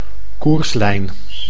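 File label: "koerslijn", Dutch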